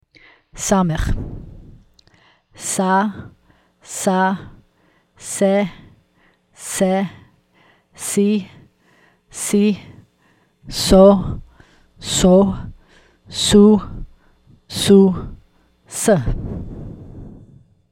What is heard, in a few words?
Samekh, Aleph Bet